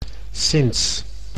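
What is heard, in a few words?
"sinds", Dutch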